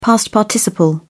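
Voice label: "past participle", British English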